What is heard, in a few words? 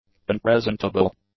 "unpresentable", english; adjectives